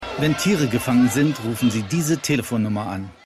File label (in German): Off Sprecher; The Wire